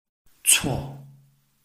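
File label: "cuo", Chinese, tone 4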